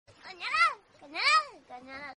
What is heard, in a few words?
"konoyaro" sound notification; chopper